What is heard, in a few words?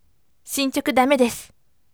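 voice, firm; shinchoku damedesu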